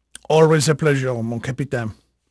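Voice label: French male, voice; Pirates Of The Caribbean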